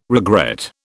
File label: "regret", British